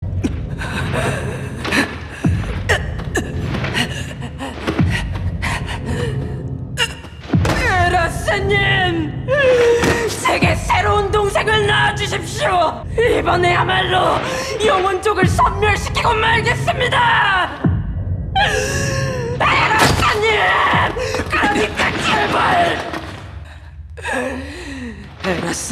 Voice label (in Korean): 절규하는 허스키한 악당 사악한 30대